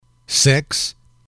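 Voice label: "six", male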